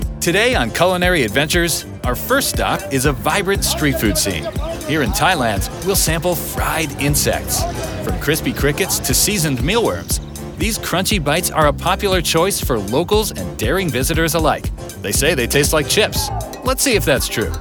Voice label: Documentary Narration; Culinary Adventures